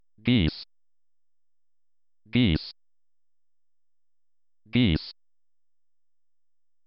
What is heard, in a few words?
my name german